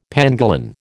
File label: "pangolin", American